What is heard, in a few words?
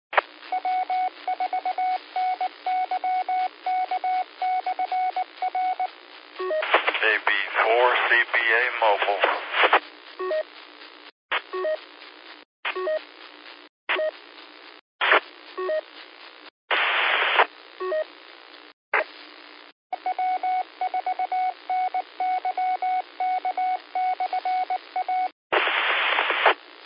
qso rec simplex logic